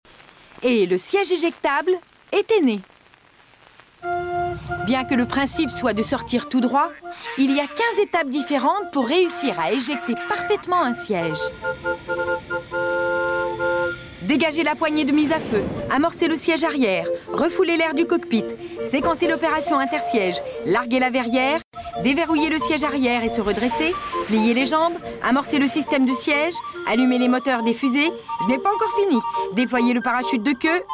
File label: narration